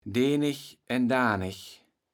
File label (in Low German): "deenegändaaneg", kaevels platt